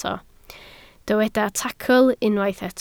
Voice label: "tacl", Welsh